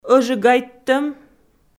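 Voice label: "ӧжигайттӧм", Komi, female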